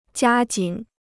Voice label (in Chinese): "加紧", Chinese